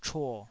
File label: "chuo3", taiwanese